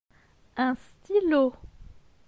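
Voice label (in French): "Un stylo", French; phonetique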